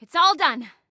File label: Command Completed, Female